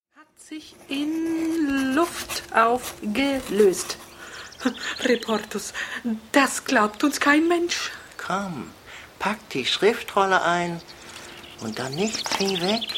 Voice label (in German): Hoerszene